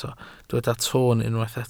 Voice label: "toon", Welsh

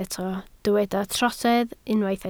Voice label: "trosedd", Welsh

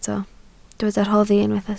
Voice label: "rhoddi", Welsh

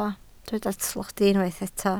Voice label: "tlodi", Welsh

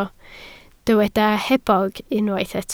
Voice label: "hebog", Welsh

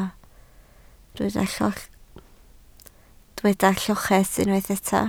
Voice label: "lloches", Welsh

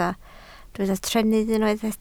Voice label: "trennydd", Welsh

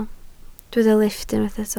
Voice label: "lifft", Welsh

